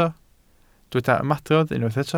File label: "ymadrodd", Welsh